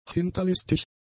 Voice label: "hinterlistig", German; adjectives

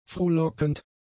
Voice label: "frohlockend", German; adjectives

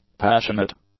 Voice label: "passionate", English; adjectives

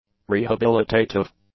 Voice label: "rehabilitative", English; adjectives

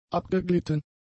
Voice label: "abgeglitten", German; adjectives

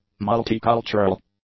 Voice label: "multicultural", English; adjectives

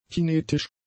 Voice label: "kinetisch", German; adjectives

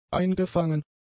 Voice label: "eingefangen", German; adjectives

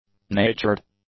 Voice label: "natured", english; adjectives

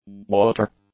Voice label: "water", English; nouns

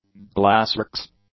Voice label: "glassworks", English

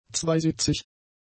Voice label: "zweisitzig", German; adjectives

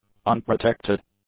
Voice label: "unprotected", english; adjectives

